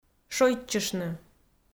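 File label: "шойччыштны", Komi, female; kpv audio dict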